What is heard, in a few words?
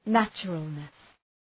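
"naturalness", English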